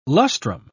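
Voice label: "lustrum", British English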